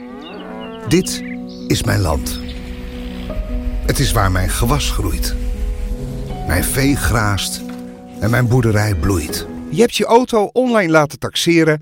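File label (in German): Dutch Mix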